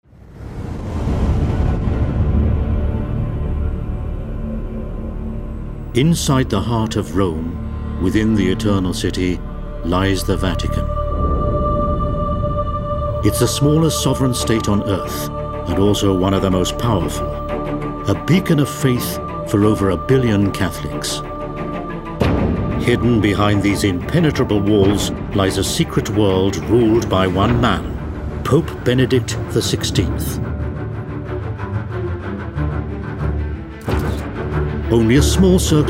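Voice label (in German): UK English Werbung Neutral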